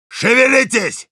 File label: Heavy, ru; «Шевелитесь!»